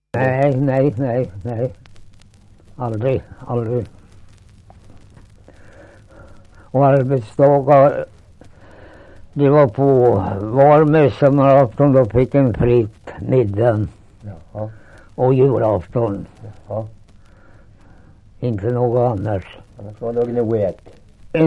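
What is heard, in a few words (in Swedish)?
Svärta Södermanland, dialekter; Folkminnen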